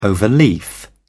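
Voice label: "overleaf", British English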